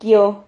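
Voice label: "quio", Spanish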